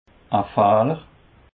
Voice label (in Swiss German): "fehler", Alsace